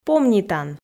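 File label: "помнитан" Komi, female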